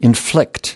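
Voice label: "inflict", US English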